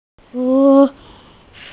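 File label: "zi3shu3", Mandarin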